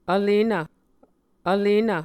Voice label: "vlenv", Muscogee